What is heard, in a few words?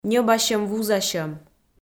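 "ньӧбасьӧм-вузасьӧм", Komi, female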